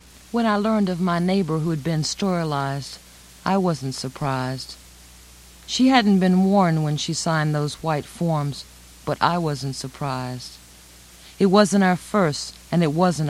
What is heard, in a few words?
Poem; Sterilization. I Wasn't Surprised.